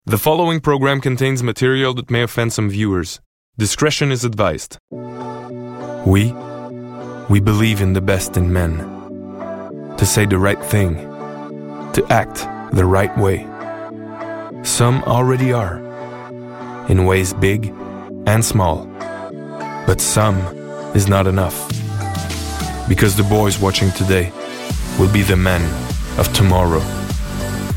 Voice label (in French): voix anglais